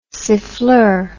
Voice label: "siffleur", English

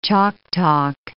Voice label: "chalk talk", English

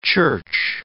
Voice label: "church", English

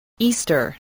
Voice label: "easter", English

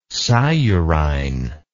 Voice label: "sciurine", English

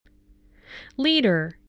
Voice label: "leader", English

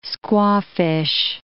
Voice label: "squawfish", english

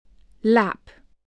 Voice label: "lap", English

